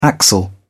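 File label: "axle", British English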